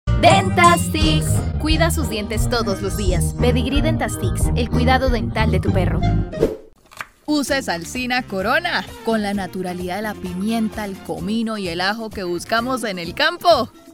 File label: Commercial, voice demos; GoLocalise